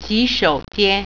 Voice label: "restroom", Mandarin